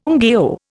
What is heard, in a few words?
"ngaio", Indian